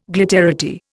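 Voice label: "glitz", Indian; Dictionary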